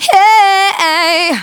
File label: Phrase Female; Vengeance Samples; Phrases